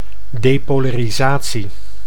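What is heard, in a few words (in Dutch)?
"depolarisatie", Dutch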